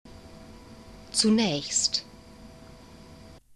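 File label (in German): "zunaechst", German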